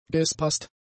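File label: "gespaßt", German; adjectives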